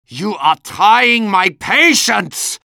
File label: medic jeers; tf2